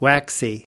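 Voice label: "waxy", US English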